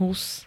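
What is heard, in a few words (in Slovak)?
"hus", slovenské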